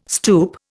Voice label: "stoep", Indian